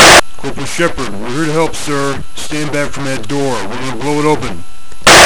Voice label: blowup, radio